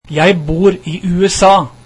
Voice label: I live in the US, norwegian